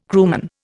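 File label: "crewman", Indian English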